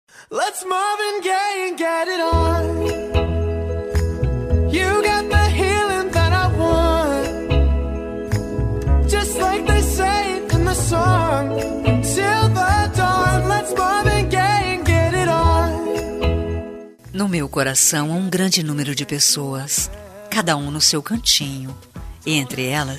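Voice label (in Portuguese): birthday TIO DISTANTE female, telemensagem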